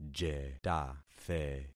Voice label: "jetathe", concat syllables; Affixing experiment